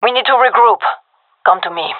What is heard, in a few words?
request regroup, gendarmerie fem; vo